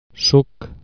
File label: juice, Catalan